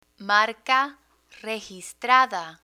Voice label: marca registrada, Spanish; learn spanish

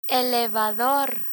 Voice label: "elevador", Spanish

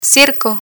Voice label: "circo", Spanish; learn spanish

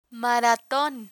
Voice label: "maraton", Spanish; vocabulary; learn spanish

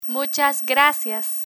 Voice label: "muchas gracias" Spanish; travel; learn spanish

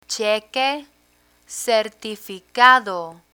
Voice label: "cheque certificado", Spanish; banking vocabulary

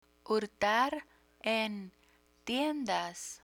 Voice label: "hurtar en tiendas", Spanish

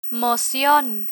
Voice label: "mocion" Spanish; legal terms; learn spanish

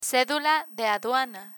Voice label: "cedula de aduana", Spanish

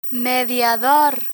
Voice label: "mediador", Spanish; human resources terminology; learn spanish

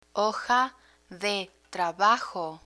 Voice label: "hoja de trabajo", Spanish

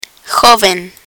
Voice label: "joven", Spanish

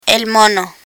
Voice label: "mono", Spanish; spanish for children; learn spanish

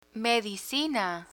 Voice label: "medicina", Spanish

